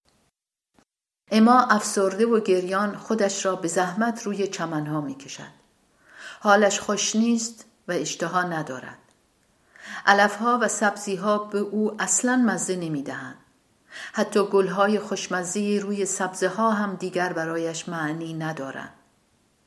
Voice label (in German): farsi